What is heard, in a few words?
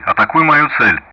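Speech Russian; DCS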